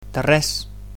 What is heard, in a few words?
"you're welcome", Catalan